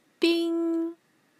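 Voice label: "bing", Mandarin Chinese, tone 1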